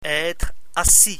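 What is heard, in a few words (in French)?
"etre assis", French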